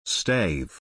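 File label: "stave", English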